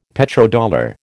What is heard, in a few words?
"Petrodollar", American